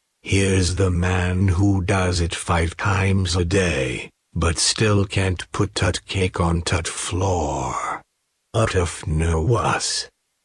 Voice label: gravelly voiceover man